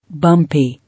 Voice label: "Bumpy", English

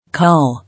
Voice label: "Call", English

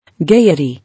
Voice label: "Gaiety", English

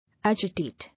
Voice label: "Agitate", English

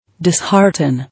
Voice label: "Dishearten", English

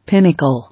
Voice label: "Pinnacle", English

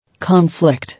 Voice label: "Conflict", English

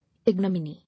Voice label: "Ignominy", English

